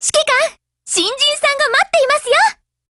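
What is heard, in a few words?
BUILDOVER, Japanese; GF Alarm; Carcano1891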